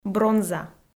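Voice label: "бронза", Komi, female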